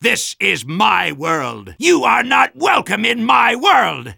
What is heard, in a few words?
Soldier taunts